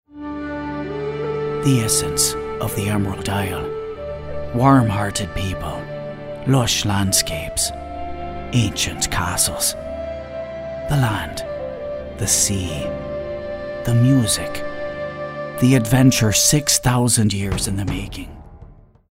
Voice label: irish

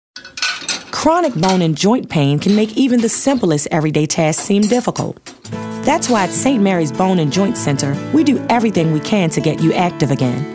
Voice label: caring

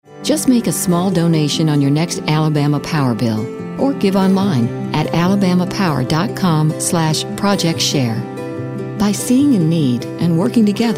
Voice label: southern

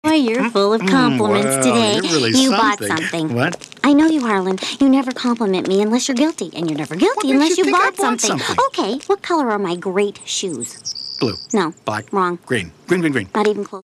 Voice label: humorous

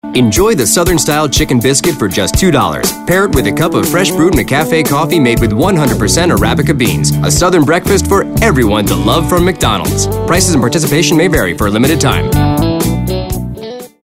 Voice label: southern

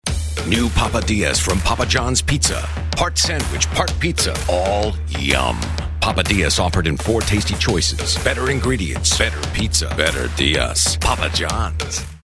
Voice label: gritty

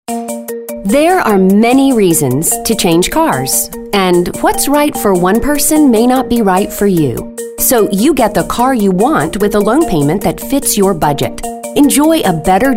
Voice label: informative

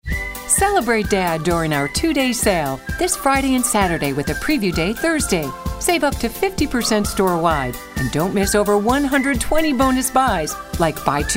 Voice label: upbeat

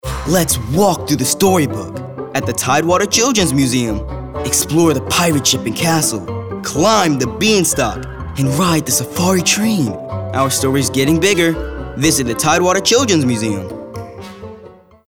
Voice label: Storybook

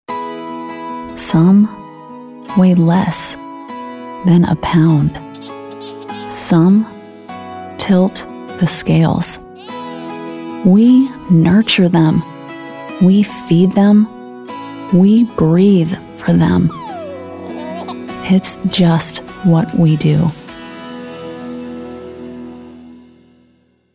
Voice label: Caring

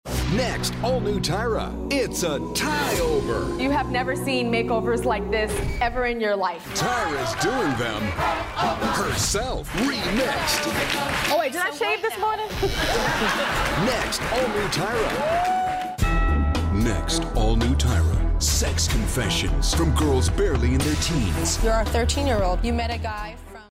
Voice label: announcer

